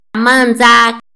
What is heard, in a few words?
"manza", Mazahua; INALI; AprendeMazahua